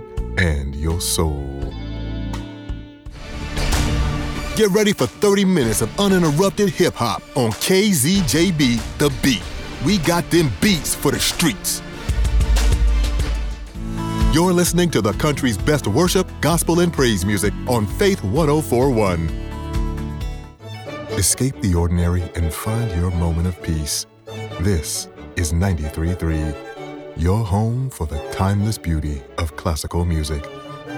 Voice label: Radio Station Imaging